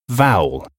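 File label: "vowel", English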